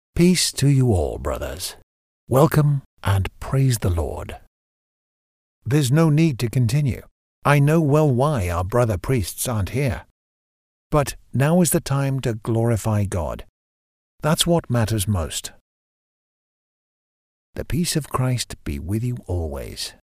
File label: english british voiceover locutor ingles, locutor de Inglaterra